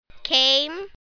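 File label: "came", English word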